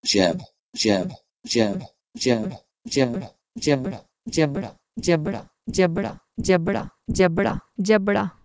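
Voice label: Proto-Indo-European geb to Urdu jabra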